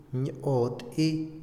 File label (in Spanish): sequía, Otomí, hombre; INALI